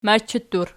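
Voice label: "machattur", nominative, Archi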